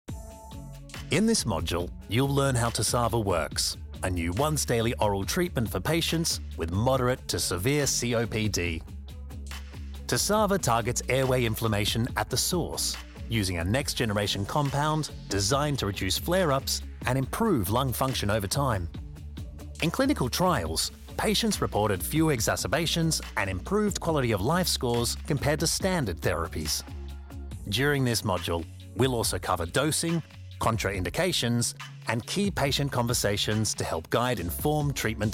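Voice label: NARRATION, Authentic Australian Voiceover; TASAVA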